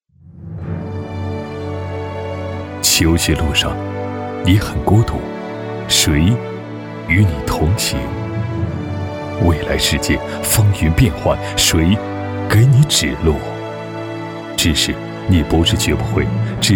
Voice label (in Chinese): 男 专题 温情内敛 求学路上, 国语男声配音